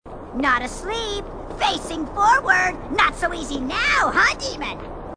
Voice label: grunt; h2dialogue; grunt crazy